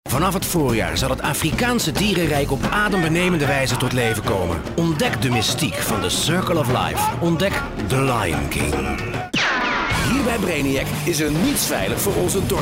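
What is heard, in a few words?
NL M commercial, Dutch, male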